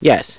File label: "yes", scientist